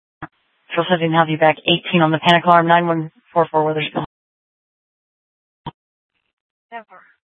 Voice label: Dispatch; Scottsdale